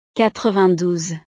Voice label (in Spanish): "quatre-vingt-douze", frances